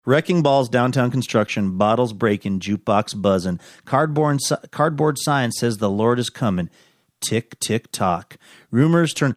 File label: reciting lyrics